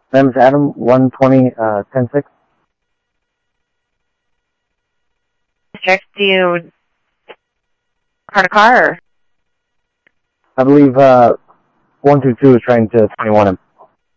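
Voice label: Sheriff East County, scanner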